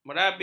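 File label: "merabit", Malay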